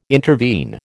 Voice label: "intervene", American